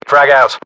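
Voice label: British inform attack grenade, battlechatter, english; uk; localized english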